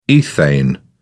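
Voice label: "ethane", British English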